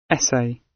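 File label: essay, British; Language, linguistics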